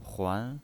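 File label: "huan", second tone, pinyin voice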